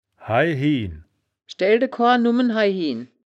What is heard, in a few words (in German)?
"haihien", wittlich